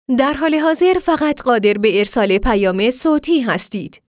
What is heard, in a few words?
IVR women